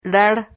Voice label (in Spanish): "rar", Silabas